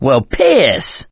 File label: reaction negative, survivor voice mechanic